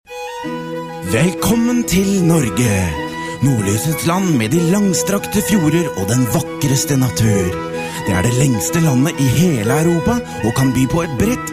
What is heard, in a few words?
Norwegian, Norwegian voice overs, Male